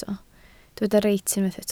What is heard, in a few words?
"reit", Welsh